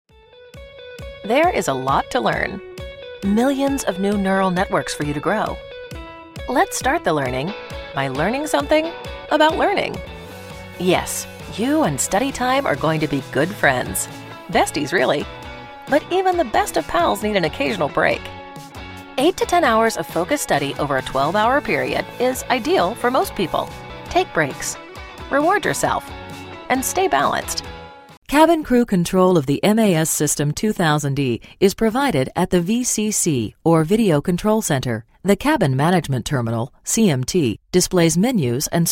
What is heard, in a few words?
Education Training, femalevoices